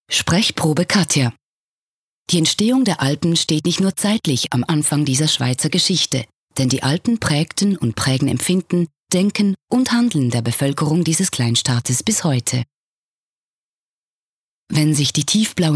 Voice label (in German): OFF CH DE